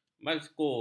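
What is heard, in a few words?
"mazkur", Malay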